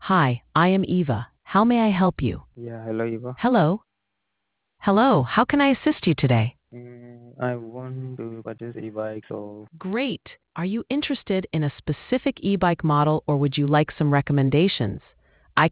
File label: conversation